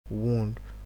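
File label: "wound", Nigerian Pidgin, male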